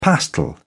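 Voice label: "pastel", British English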